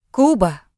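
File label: "cuba", Ukrainian; gcompris; geography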